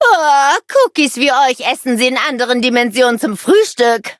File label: victory, de; cookie run